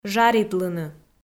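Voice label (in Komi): "жаритлыны", Komi, female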